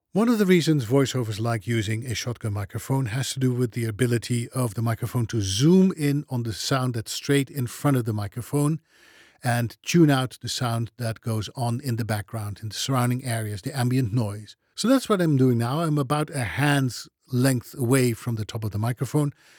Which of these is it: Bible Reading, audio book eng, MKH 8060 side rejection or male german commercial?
MKH 8060 side rejection